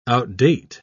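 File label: "outdate", British English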